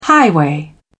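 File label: "highway", British English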